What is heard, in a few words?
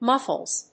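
"muffles", English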